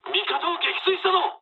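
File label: Japanese player killed ally mood low; War Thunder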